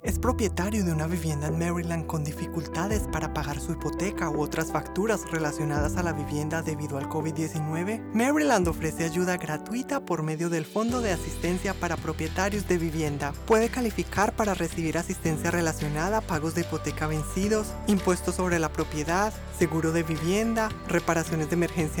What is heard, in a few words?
Homeowner Assistance Fund Radio Spanish